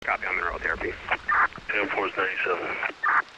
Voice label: police radio chatter